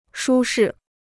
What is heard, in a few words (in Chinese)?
"舒适", Chinese